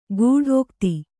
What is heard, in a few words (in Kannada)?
"ಗೂಢೋಕ್ತಿ", Kannada